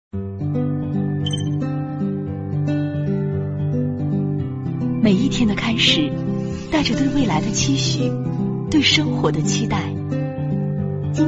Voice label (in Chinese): 女 广告; 长安欧尚X7极客版